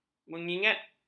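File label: "menyingkat", Malay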